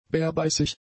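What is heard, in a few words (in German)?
"bärbeißig", German; adjectives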